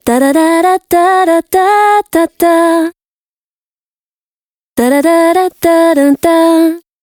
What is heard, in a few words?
vox female upfront intimate